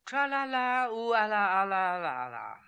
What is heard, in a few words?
"Tralalaooalalalala", pronounciations; Fourth Way; Beelzebub